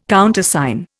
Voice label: "Countersign", Indian

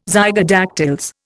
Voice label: "zygodactyls", Indian

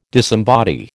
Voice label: "disembody", American

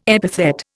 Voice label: "epithet", Indian

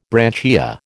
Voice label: "branchia", American

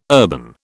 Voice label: "urban", British

